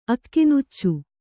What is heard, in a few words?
"ಅಕ್ಕಿನುಚ್ಚು", kannada